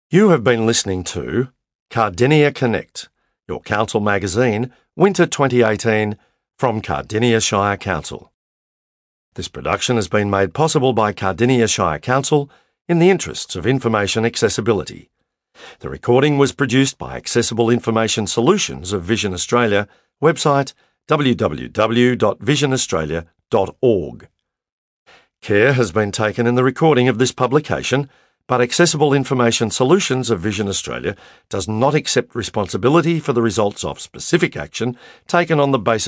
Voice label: closing announcement